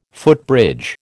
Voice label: "footbridge", American

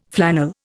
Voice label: "flannel", Indian

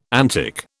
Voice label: "antic", British

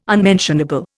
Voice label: "unmentionable", Indian

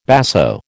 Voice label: "basso", American

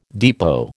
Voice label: "depot", American

